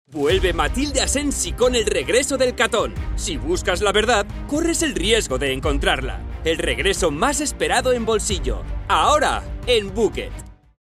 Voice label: Spot Cuna Radio, Epico; Grupo Planeta

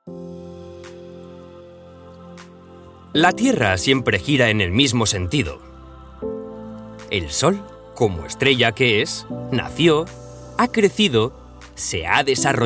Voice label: Video Navidad Natural Amable y Solemne, Motivational Video - Natural, Friendly, and Solemn; Ayuntamiento de Huesca

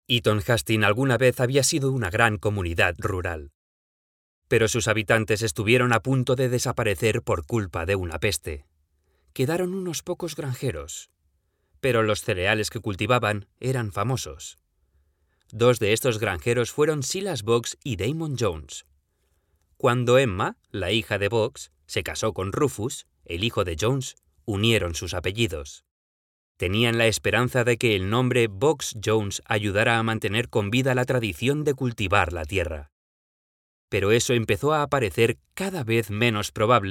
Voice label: Audiolibro, AudioBook; Eaton Hastings

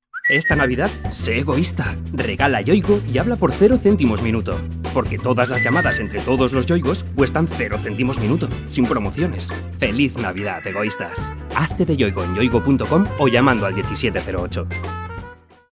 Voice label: Publicidad Amable, Advertisement - Friendly and Warm; Yoigo

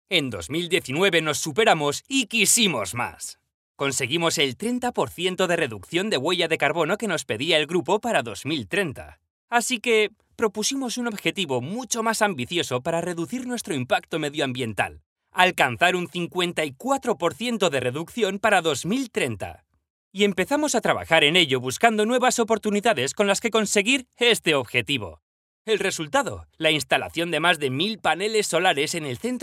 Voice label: Video Interno Epico y Efusivo, Internal Video - Epic and Enthusiastic

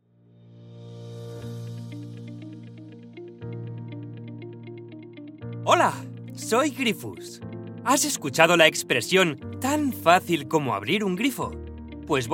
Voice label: Video Animacion Joven y dinamico, Animation Video - Youthful, Dynamic and Explanatory; Grifus

